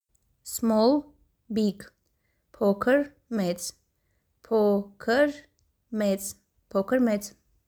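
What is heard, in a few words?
English "Small big", Armenian "Pokr mets"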